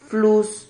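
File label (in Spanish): "flus", Spanish syllable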